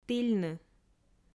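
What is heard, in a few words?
"тільны", Komi, female; audio dict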